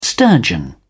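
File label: "sturgeon", English, uk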